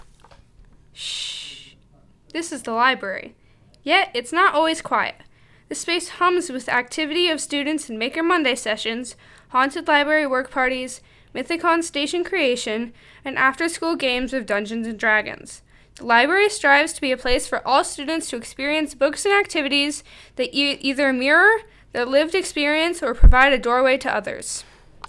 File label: Library Narration